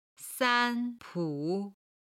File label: "san1 pu3", Chinese